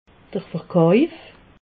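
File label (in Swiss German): "verkauf", Alsatian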